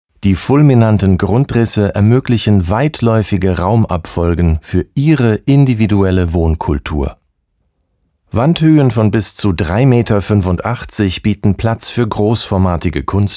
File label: german real estate; actor de doblaje profesional